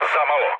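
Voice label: Serbian bomb failure mood low, speech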